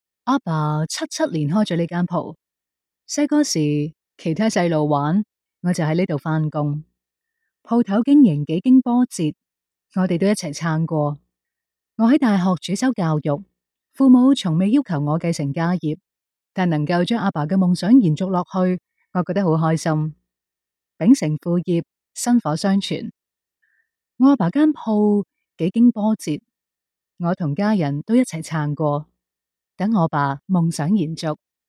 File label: Cantonese F Female